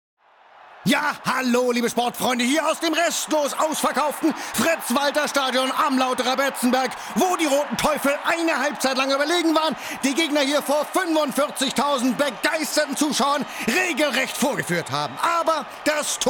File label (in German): sportkommentar